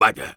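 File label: negative vocalization, Spanish; Engineer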